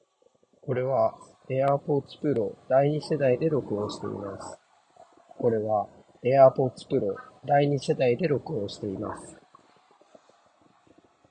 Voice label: airpods voice